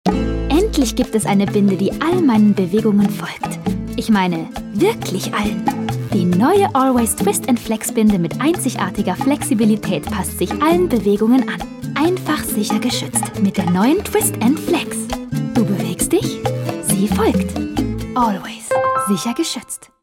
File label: TV Spot; Always